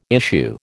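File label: "issue", American English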